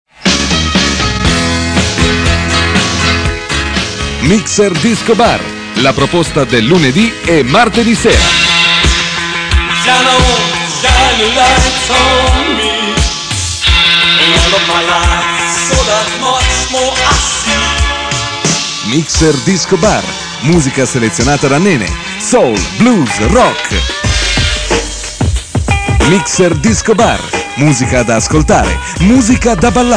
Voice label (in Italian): spot remix; 1994; Mixer